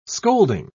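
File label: "scolding", British English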